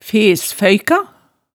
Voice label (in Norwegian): "fes-føyka", hemsedalsmaalet